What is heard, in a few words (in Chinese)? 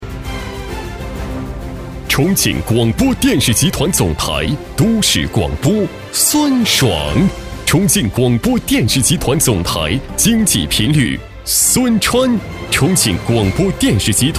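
颁奖样音播音主持人男; 颁奖样音十佳播音主持人男国样音改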